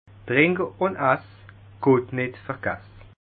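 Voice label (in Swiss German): "trinkuness", Alsatian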